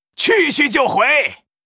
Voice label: Mortar team yes, zh

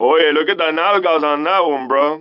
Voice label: 拉丁裔海滩中年男性; 拉丁裔海滩中年男性（罪恶都市）

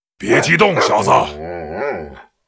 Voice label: Wolf rider pissed, zh

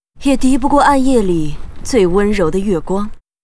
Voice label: Huntress pissed

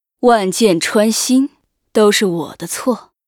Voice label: Archer pissed

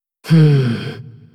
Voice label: Hero female demon hunter yes

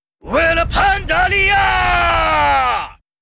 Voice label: Pandaren brewmaster fire warcry

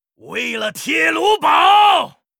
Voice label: Rifleman warcry, zh; 矮人火枪手（魔兽争霸3）

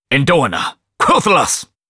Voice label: warcry, zh

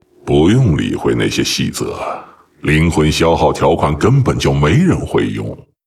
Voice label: pissed, zh

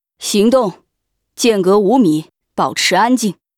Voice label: Archer pissed

